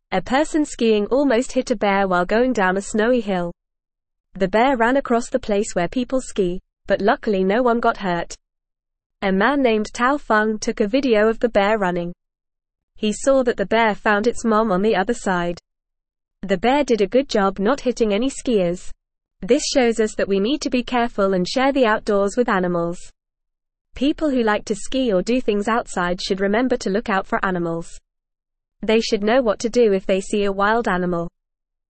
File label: Lower Intermediate FAST Reading, English